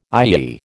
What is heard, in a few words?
"IEE", American